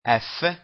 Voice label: italian alphabet f

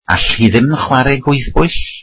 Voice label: welsh cant she play chess

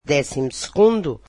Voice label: portuguese twelfth

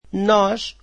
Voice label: "us", Portuguese

